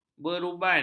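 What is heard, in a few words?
"beruban", Malay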